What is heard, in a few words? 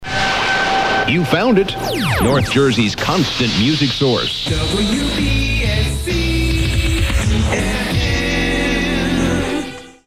SWEEPER; Constant Music Source